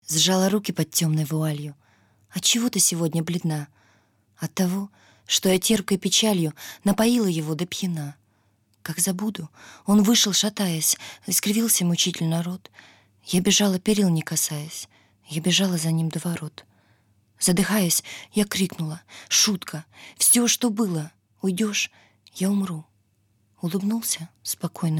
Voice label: rus

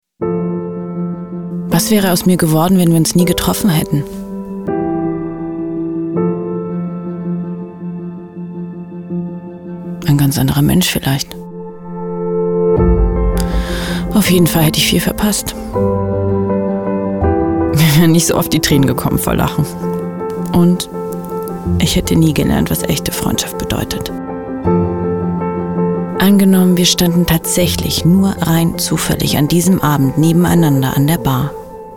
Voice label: Authentisch natürlich Commercial, German

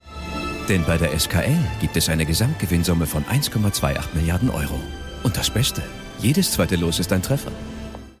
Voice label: TV Spot; SKL

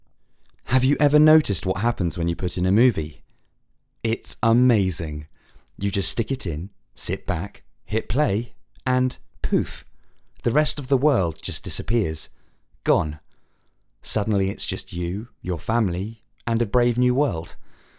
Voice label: commercial

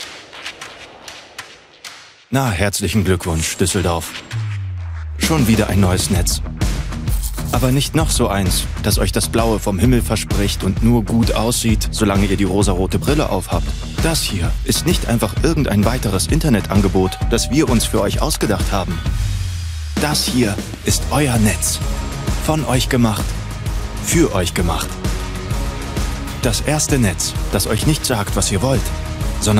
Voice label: commercial, Commercial (Werbung), German; Werbung - net.D

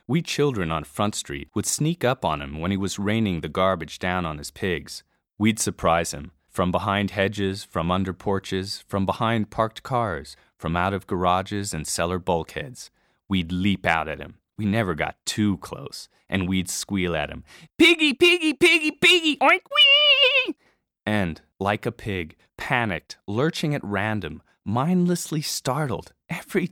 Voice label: audiobook; piggy sneed

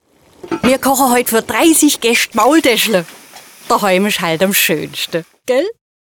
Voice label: vo technik funk

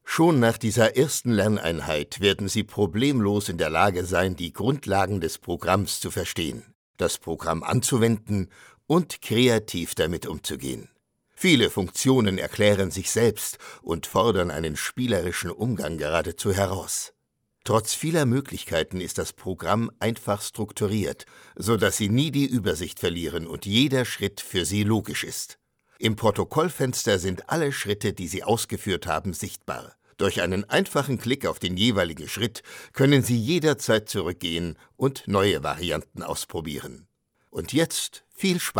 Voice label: E-Learning

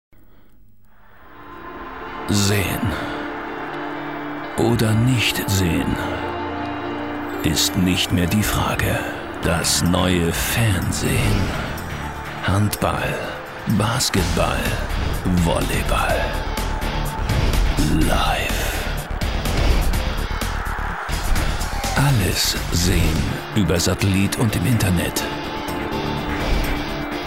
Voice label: Stationvoice TV; Sportdigital; LOFT VOICES

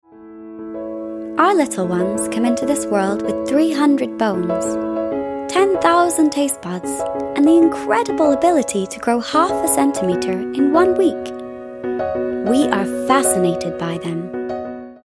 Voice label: Baby Food Advert Voice

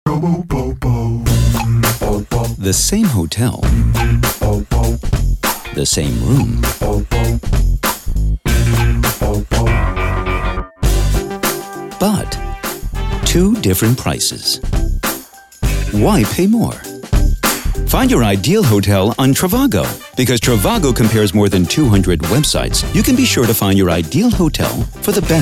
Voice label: commercial, compare and find hotel deals; trivago; middle east; LOFT VOICES

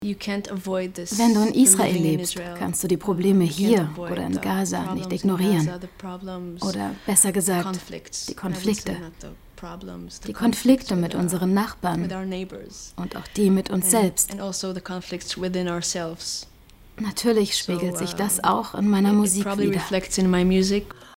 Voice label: voice over; metropolis telaviv

